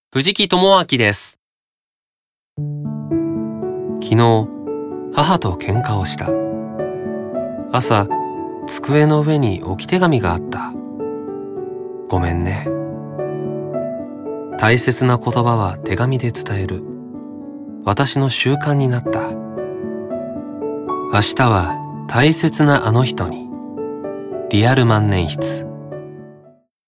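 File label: commercial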